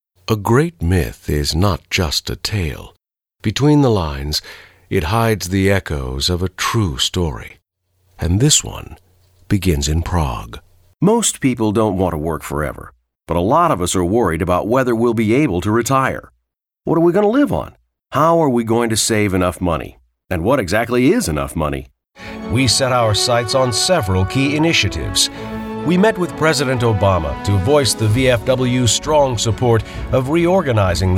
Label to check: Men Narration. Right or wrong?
Right